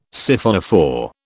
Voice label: "Siphonophore", British

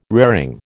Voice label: "raring", American

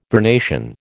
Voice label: "vernation", American

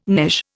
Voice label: "knish", Indian

